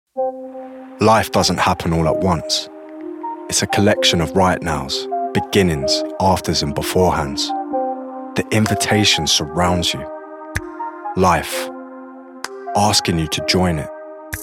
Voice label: Commercial Montage